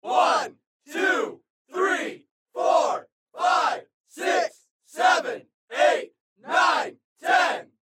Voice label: crowd count one to ten